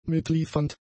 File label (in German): "mitliefernd", German; adjectives